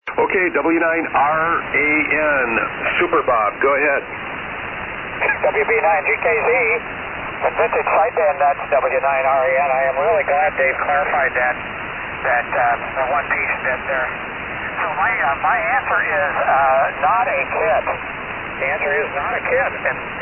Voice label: Heathkit SS-9000 & Heathkit Mic; Freeport, IL.